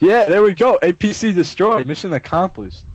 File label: apc destroyed, announcements; RoundEnd